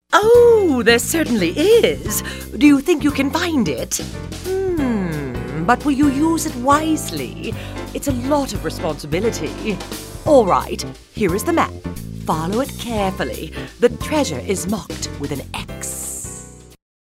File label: cartoon character